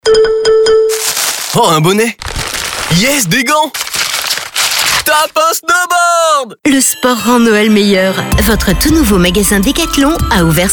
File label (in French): spot homme femme